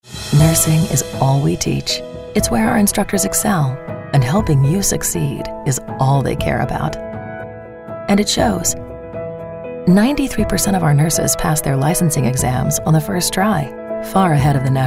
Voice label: Raspy